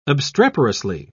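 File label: "obstreperously", British English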